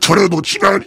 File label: heavy positive vocalization